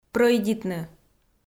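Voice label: "прӧйдитны", Komi, female; kpv audio dict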